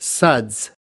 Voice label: "suds", us